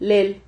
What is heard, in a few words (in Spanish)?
"lel", Silabas